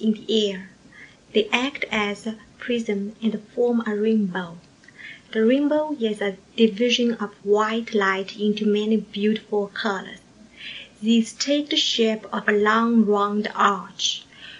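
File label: shanghai, dialects of English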